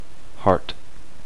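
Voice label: "heart", male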